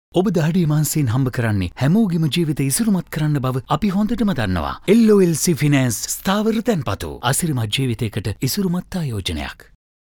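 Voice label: Sinhala male voice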